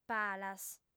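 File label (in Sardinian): "palas", female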